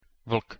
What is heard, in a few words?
"vlk", Slovak